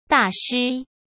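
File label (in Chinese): "大师", Mandarin; chinese flashcards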